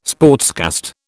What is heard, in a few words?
"sportscast", British